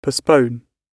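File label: "postpone", British English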